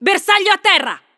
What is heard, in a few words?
Positive, italian; azurlane hoi4; azurlane sr